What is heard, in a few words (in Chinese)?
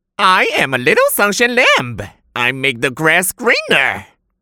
英 男 角色; 羊力大仙